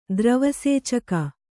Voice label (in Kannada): "ದ್ರವಸೇಚಕ", Kannada